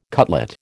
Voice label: "cutlet", American

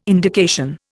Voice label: "indication", Indian

